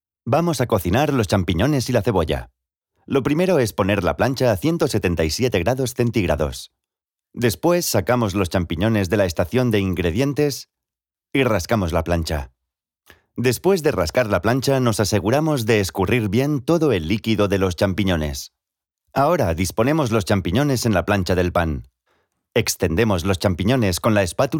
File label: spanish ELEARNING